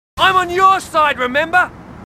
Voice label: marines, aussie; h2dialogue

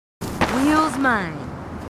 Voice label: marines female, marine tough

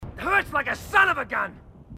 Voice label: marine, aussie; h2dialogue

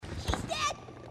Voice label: shes dead, grunts

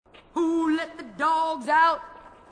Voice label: female marine, marine tough

